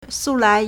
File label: "su4lai2", Chinese